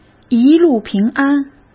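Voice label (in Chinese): "一路平安", Mandarin Chinese; chinese flashcards; hsk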